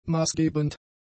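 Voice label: "maßgebend", German; adjectives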